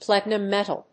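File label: "Platinum metal", English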